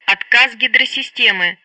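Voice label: Speech Sound Russian Common Messages; HydrolicsFailure; Betty; DCS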